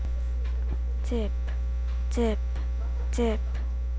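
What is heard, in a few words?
"zip", spoken word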